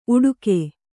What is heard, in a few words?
"ಉಡುಗು", Kannada